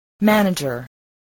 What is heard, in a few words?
"manager", spelling voice